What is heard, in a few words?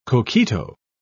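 "coquito", British English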